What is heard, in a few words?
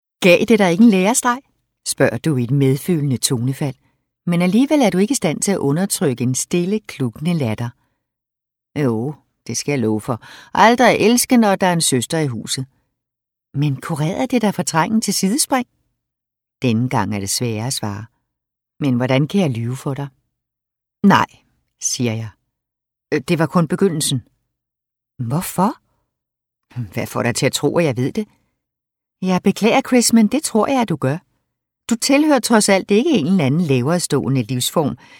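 Danish, Audiobook, female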